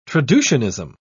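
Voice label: "traducianism", British English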